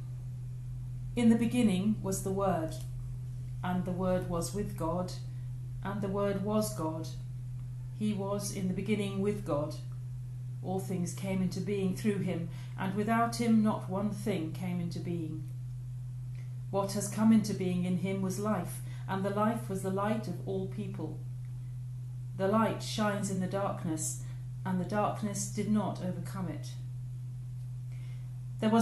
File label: The Gospel Reading; 2 before Lent